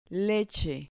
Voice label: "leche", Spanish

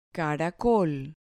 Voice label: "caracol", Spanish

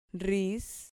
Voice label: "rris", Spanish

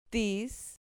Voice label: "tis", Spanish

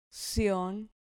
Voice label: "cion", Spanish syllable

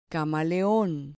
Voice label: "camaleon", Spanish

